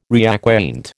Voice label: "reacquaint", American

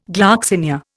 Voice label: "gloxinia", Indian

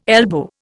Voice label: "elbow", Indian

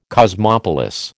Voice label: "cosmopolis", American

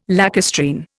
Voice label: "lacustrine", Indian

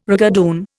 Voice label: "rigadoon", Indian

